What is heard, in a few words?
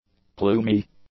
"plumy", english; adjectives